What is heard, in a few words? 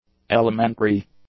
"elementary", English; adjectives